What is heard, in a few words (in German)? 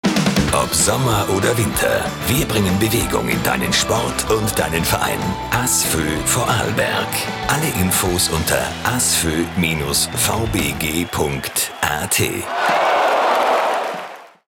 spot kurz